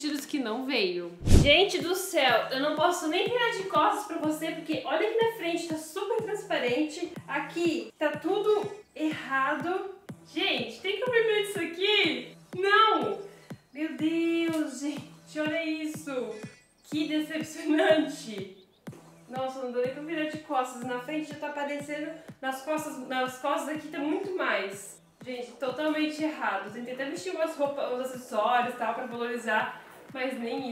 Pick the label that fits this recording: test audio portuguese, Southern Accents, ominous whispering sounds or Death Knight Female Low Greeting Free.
test audio portuguese